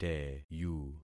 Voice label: "teyu", concat syllables; Affixing experiment